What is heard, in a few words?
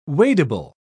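"wadable", British English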